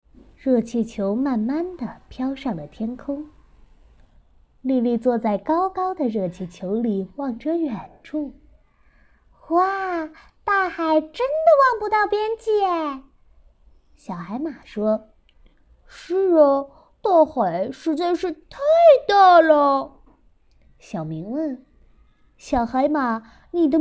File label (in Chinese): 少儿故事